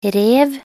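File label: "rev", Norwegian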